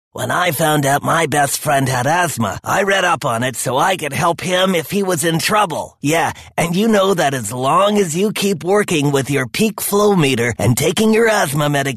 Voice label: TEDDY BEAR voiceover

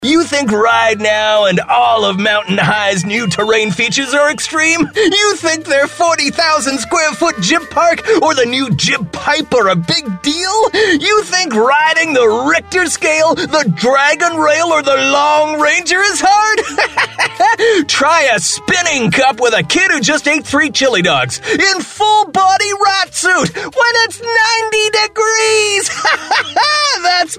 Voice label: CARNY voiceover

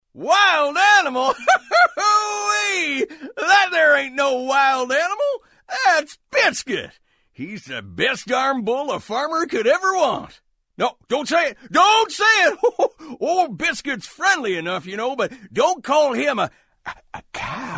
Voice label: southern farmer voiceover

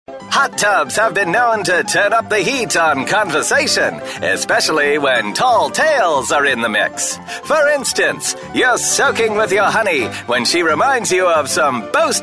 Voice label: ROARING 20S voiceover

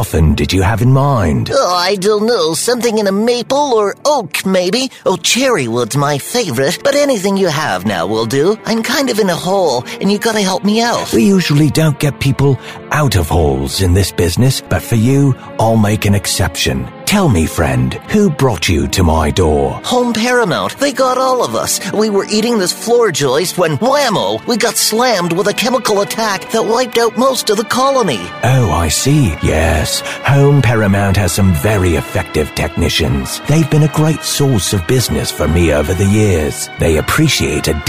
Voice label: FUNERAL DIRECTOR and TERMITE voiceover

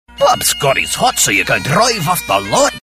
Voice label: Scottish accent voiceover excited

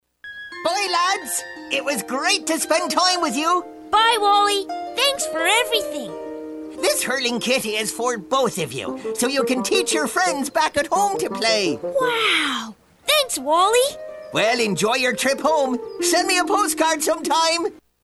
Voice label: IRISH leprechaun accent voiceover

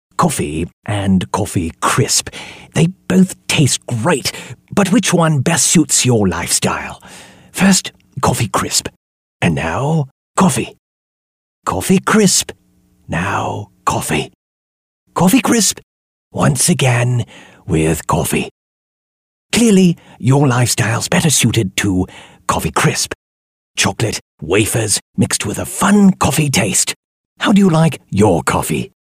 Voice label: BRITISH Monty Python announcer voiceover

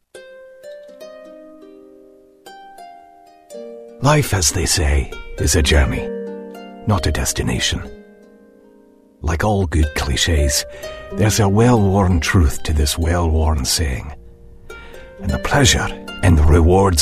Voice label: Scottish accent voiceover light

